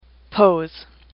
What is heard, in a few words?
"pose", English; AWL